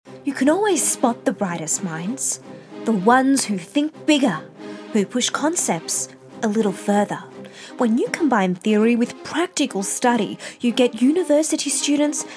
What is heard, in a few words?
VoiceOver